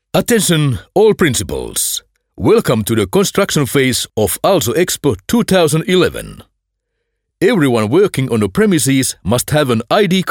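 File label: Finnish English M Narration, accent of finland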